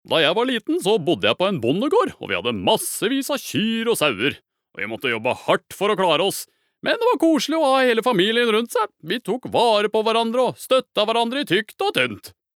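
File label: Norwegian dub man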